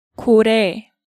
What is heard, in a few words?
"Gorae", Korean